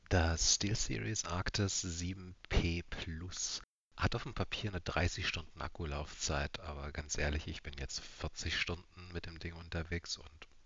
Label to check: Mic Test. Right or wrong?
Right